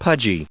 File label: "pudgy", English